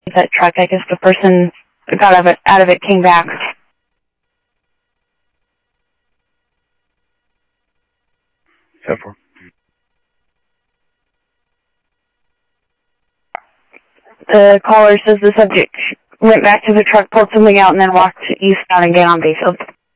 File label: Sheriff scanner audio